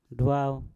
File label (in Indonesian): "dwau" babi, Mpur